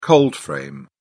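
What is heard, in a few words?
"cold frame", British English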